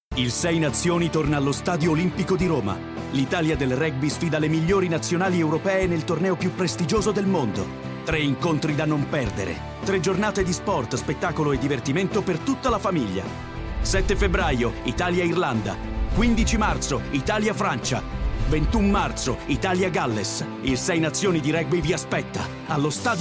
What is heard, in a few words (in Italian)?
Spot; RBS 6 Nazioni 2015